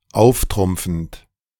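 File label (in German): "auftrumpfend", German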